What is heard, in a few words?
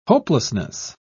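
"hopelessness", British English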